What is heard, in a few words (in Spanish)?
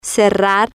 "cerrar", spanish